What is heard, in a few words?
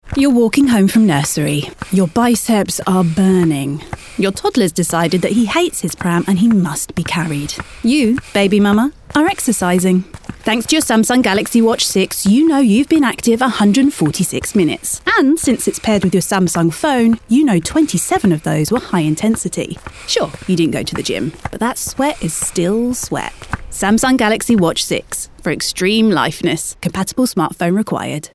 Ad; Samsung